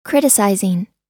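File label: "criticizing", US English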